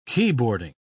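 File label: "keyboarding", British English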